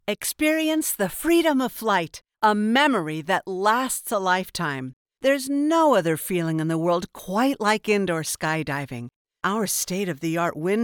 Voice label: Entertainment Exciting Fun Sporty High tone, voiceover